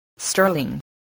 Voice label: "sterling", voice